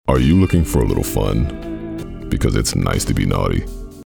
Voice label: Adult City Two Male; COMMERCIAL DEMO